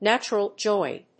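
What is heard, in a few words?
"natural join", English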